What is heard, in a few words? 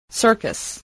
"circus", English, voice